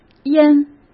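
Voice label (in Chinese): "淹", Mandarin Chinese; hsk; chinese-flashcards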